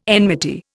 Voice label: "enmity", Indian